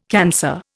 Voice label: "cancer", Indian

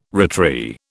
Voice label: "retry", British

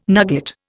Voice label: "nugget", Indian

